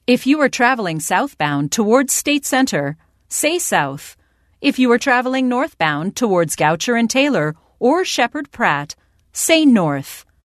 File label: IVR; MTA